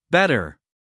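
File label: "better", American English, male; everyone-can-use-english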